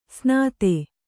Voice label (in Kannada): "ಸ್ನಾತೆ", Kannada